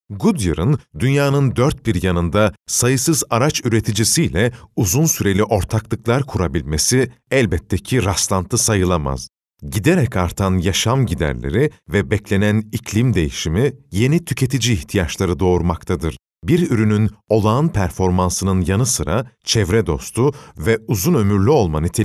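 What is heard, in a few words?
Turkish informative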